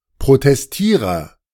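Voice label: "Protestierer", German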